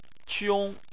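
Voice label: "qiong", Chinese, tone 1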